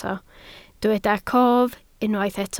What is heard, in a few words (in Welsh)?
"cof", Welsh